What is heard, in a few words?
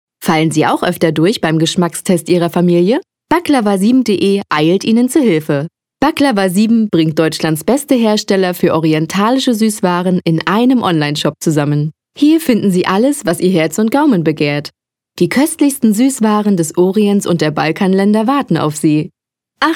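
German female; lektoring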